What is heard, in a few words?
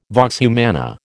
"vox humana", American